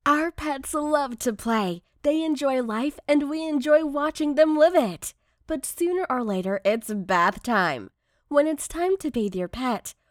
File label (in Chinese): 外籍英女 富有感染力 宠物营养脱脂乳浴广告